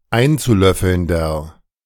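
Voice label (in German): "einzulöffelnder", German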